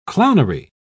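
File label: "clownery", English, British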